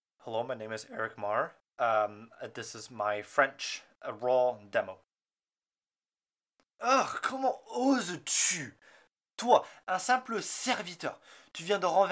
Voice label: raw french; voice demos

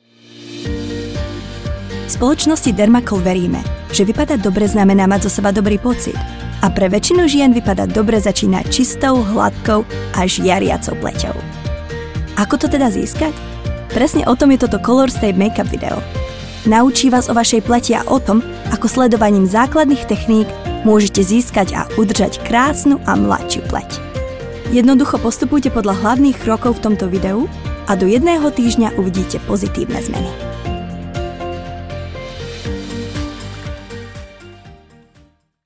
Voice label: Narrative, SK; GoLocalise

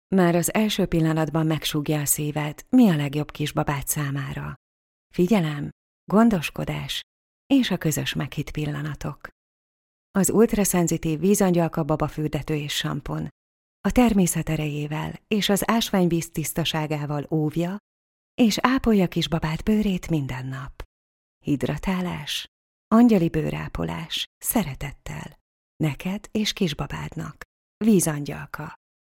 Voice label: kind; voice demos; GoLocalise

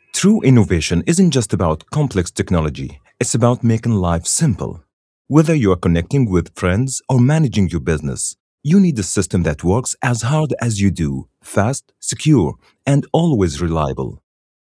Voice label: Accented English; GoLocalise

